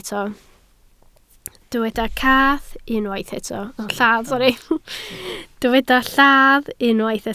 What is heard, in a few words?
"lladd", Welsh